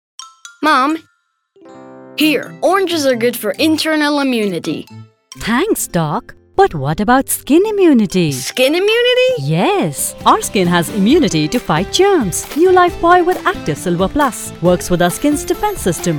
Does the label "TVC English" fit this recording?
Yes